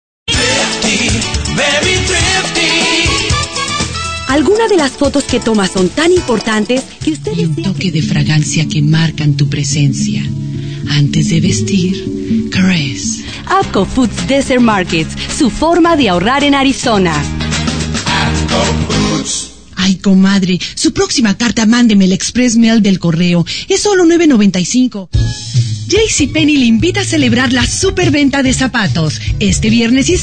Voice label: Latin